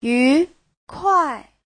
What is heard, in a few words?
"yu2 kuai4", Chinese